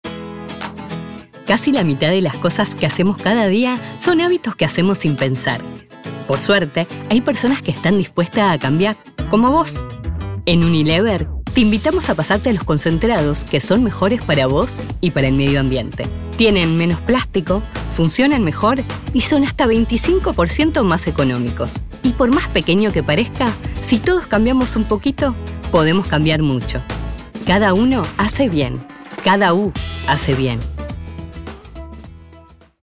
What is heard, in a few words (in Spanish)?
spot comercial hogar sustentabilidad